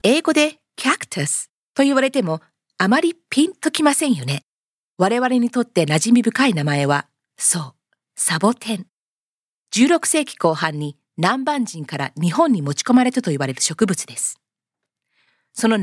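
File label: Japanese Corporate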